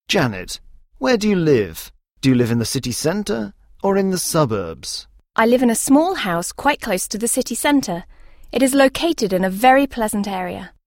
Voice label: dialog